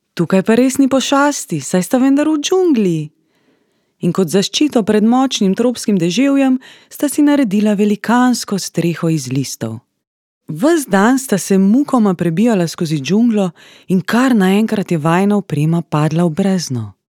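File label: Slovenian, female, narration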